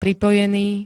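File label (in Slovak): "pripojený", Slovak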